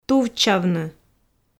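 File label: "тувччавны", Komi, female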